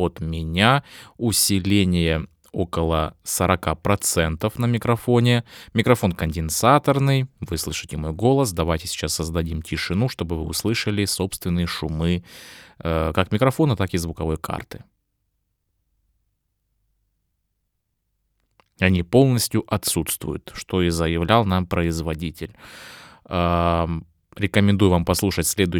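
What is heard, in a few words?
lewitt connect 6 voice without DSP; Голос без DSP; Lewitt Connect 6 — аудиоинтерфейс за 300$, с возможностью записи в двуканальном режиме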